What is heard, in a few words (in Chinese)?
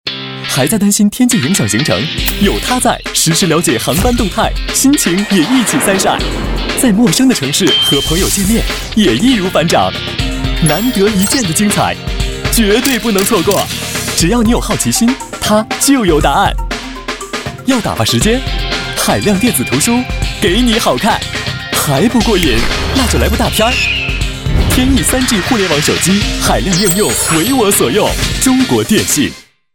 广告 手机通信 年轻; 天翼3G